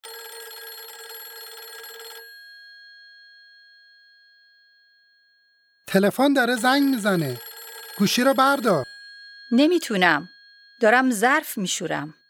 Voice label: Dialogue lesson Farsi